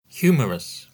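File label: "humorous", British English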